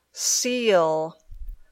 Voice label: "seal", English